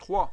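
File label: "trois", French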